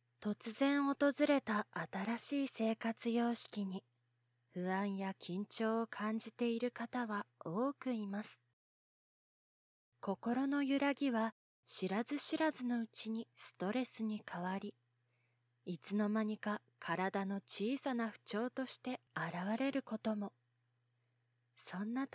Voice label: ナレーションサンプル リラックス

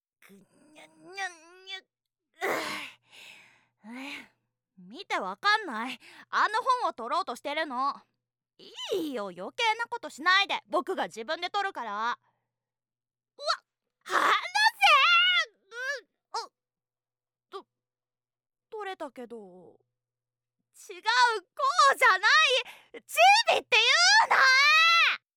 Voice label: 小さいボクっこ女子

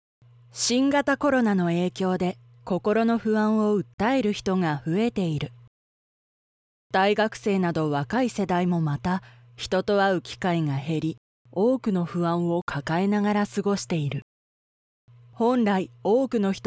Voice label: ナレーションサンプル ドキュメンタリー

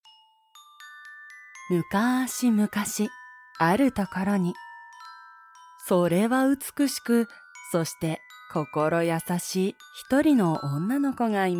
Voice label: 朗読サンプル BGM; シンデレラ

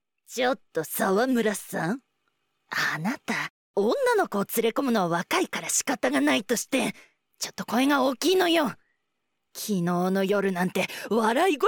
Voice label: 高年齢系

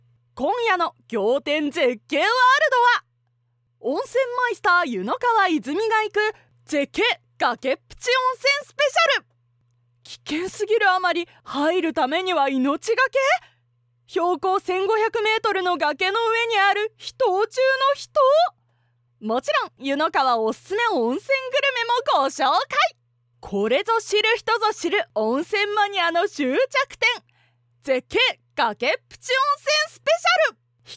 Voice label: ナレーションサンプル 崖っぷち温泉スペシャル

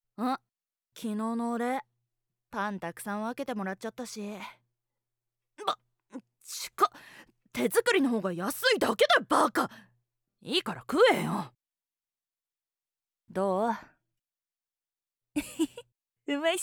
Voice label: 家庭的なツンデレギャル

